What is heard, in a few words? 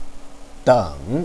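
"deng", Mandarin Chinese, third tone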